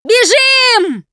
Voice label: yell run, Survivor Voice; russian; Producer